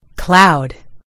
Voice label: "cloud", en GB; gcompris